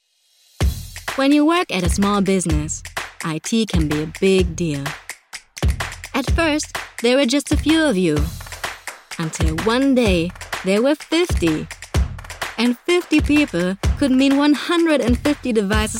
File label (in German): werbung, Sprecherin; peach